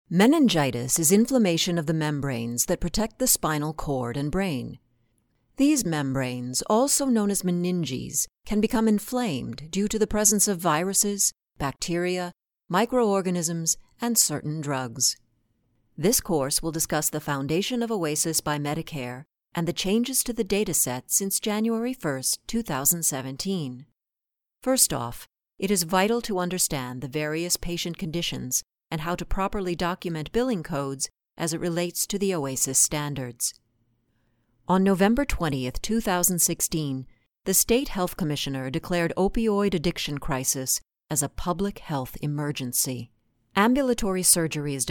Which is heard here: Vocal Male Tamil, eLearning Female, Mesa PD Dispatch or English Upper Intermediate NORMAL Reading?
eLearning Female